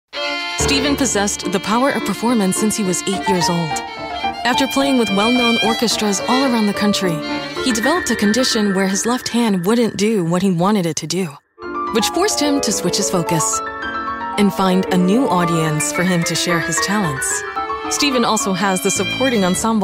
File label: commercial